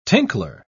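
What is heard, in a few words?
"tinkler", British English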